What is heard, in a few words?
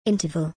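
"interval", English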